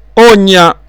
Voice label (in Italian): "ogna", dialetto; ògna unghia